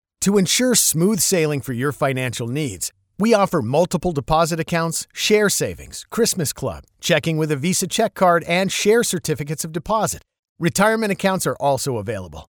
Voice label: Male